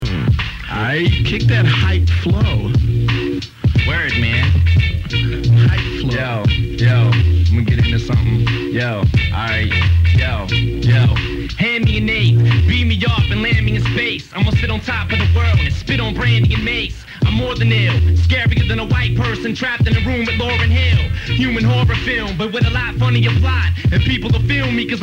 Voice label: Hip Hop, Freestyle; Rap City tha Basement